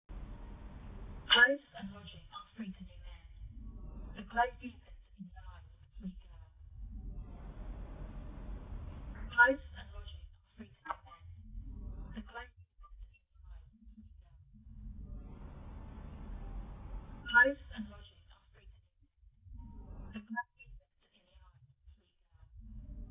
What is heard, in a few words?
aec test voip, acoustic echo cancellation; esp32s3